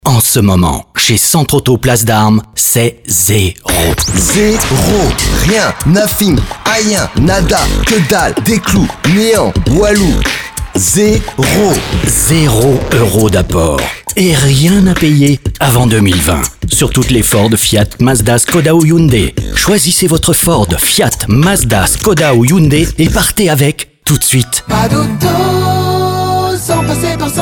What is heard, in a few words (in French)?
spot; CENTRE AUTO